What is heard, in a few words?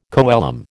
"coelom", American